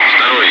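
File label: Russian calm; Wingman; DCS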